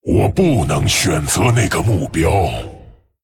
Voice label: Male Dragon ERROR TARGET; 非有效目标; 我不能选择那个目标。; 风暴巨龙迦拉克隆（英雄）; Not a valid target; I cannot target that.; zh

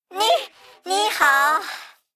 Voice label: Male Mech ERROR

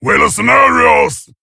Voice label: Male Tauren Attack; zh

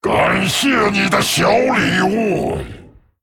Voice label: Male Dragon Thanks; zh

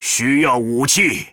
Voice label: Male Troll ERROR NEED WEAPON; zh

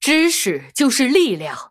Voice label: Female Human Start; zh

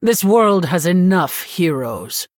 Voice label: Female Human Threaten

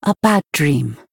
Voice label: Oops, Female Night Elf

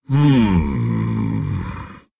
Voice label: Male Lich Thinking; en

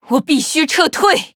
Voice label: Female Night Elf Concede, zh

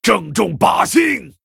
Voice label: Male Orc Ogre Attack, zh

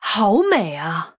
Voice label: Female Human Wow, zh

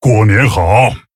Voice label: Male Tauren HAPPY NEW YEAR LUNAR; zh

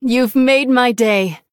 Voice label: Female Human Thanks; en

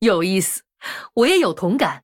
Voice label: Female Human MIRROR START, zh

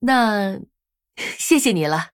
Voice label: Female Human MIRROR GREETINGS, zh

